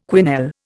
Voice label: "quenelle", Indian